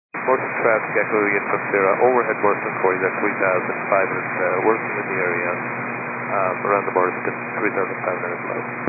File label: 122 925MHz, scanner radio